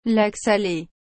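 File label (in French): "lac salé", French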